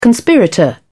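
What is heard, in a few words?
"conspirator", English, British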